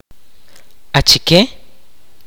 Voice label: "who", kaqchikel